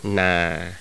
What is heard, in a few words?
low falling, thai tones